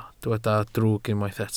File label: "drwg", Welsh